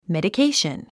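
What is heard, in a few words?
"medication", English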